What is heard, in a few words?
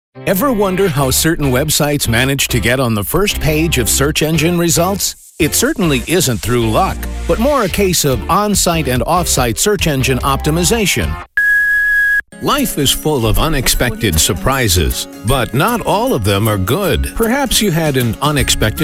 Male